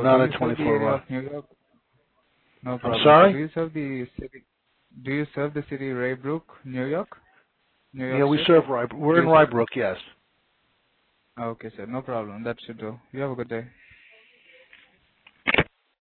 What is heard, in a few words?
call recording